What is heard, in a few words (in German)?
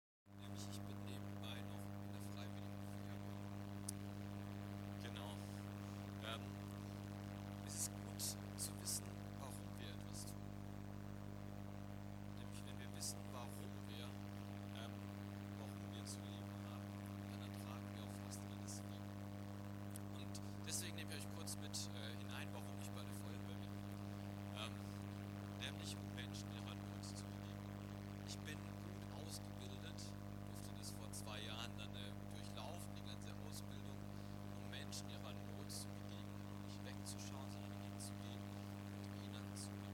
predigt; Open Doors – Christenverfolgung; Themen: Christenverfolgung, Open Doors